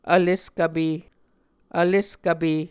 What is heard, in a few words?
"vleskvpe", Muscogee